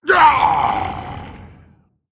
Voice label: Male Elemental Death